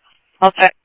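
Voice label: Mesa police department, scanner audio trunk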